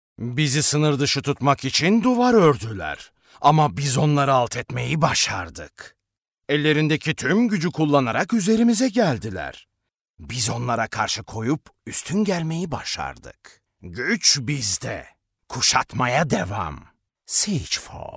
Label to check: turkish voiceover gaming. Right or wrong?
Right